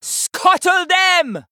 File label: Female Troll Hero Power; Hooktusk